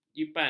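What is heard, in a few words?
"jipang", Malay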